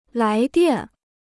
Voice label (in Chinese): "来电", Chinese